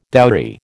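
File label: "dowry", American English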